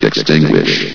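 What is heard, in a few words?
"extinguish", male synthesized voice; vox; source engine; hl1; video games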